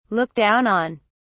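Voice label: "look down on", British English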